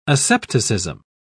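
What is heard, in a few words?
"asepticism", British English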